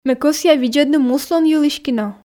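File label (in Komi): ме кӧсъя видзӧдны муслун йылысь кино, Komi, female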